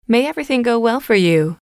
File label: may everything go well for you, English